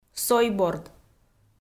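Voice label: "сойборд", Komi, female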